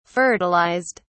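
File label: "fertilized", British English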